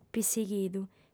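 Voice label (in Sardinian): "pissighidu", female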